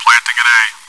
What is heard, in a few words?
chitchat; svencoop